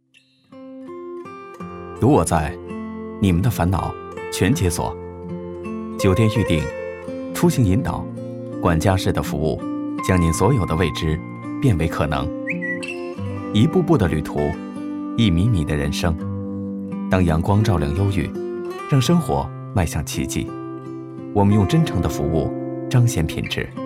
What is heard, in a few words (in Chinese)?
男 走心